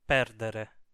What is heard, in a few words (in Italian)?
"perdere", Italian